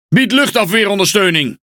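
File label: NL Speech Quick Commands Need AA, Dutch; World Of Warships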